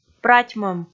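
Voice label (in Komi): "пратьмӧм" Komi, female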